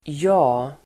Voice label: "jag", Swedish

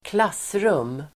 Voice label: "klassrum", Swedish

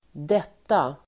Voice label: "detta", Swedish

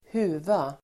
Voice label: "huva", Swedish

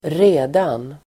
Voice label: "redan", Swedish

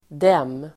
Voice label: "dem", Swedish

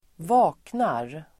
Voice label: "vaknar", Swedish

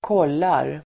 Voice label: "kollar", Swedish

